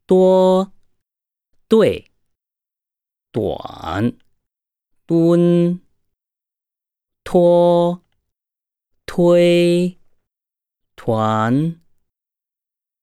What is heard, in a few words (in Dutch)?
uitspraakoefening